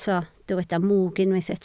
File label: "mwg", Welsh